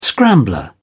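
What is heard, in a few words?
"scrambler", British English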